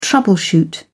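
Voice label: "troubleshoot", British English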